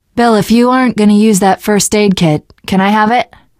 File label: player suggest health specific, survivor voice teen girl